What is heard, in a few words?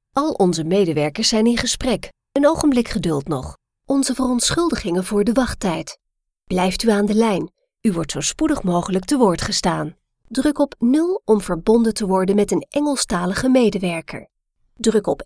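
Dutch IVR; NL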